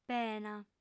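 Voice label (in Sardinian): "pena", female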